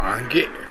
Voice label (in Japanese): "age", minami yamagata